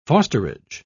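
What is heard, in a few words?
"fosterage", English, British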